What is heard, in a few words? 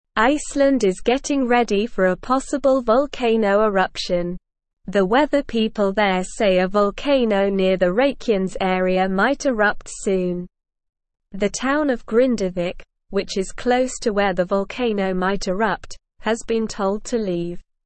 English Lower Intermediate SLOW Reading; Volcano Might Wake Up in Iceland Soon; English Newsroom